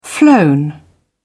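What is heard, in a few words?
"flown", British English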